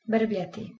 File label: "brbljati" Bosnian Croatian